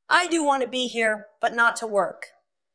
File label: Dubbing cloned es F2